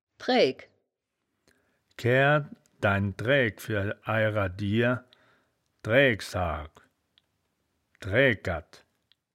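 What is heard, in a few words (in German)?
"draeaegk", wittlicher; Dräägk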